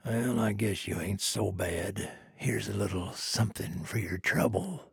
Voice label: trader male neutral quest complete